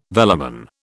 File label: "velamen", British